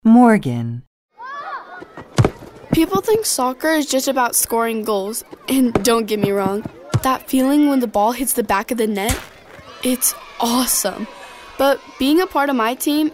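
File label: Showcase, kind hearted, soft spoken kid next door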